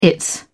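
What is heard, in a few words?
"its", British English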